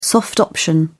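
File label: "soft option", British English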